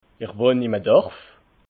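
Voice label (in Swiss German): "ich wohn dorf", Alsatian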